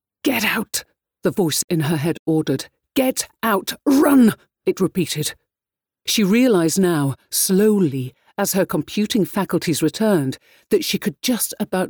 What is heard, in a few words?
horror british